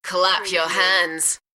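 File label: UK female, voice drop; Clap your hands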